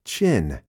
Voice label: "chin", spanish

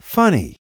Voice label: "funny", spanish

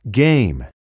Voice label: "game", spanish

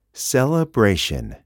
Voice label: "celebration", spanish

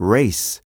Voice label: "race", spanish

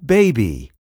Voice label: "baby", spanish

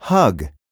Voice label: "hug", spanish

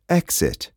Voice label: "exit", spanish

